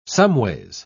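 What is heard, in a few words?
"someways", British English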